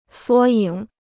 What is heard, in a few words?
"缩影", Chinese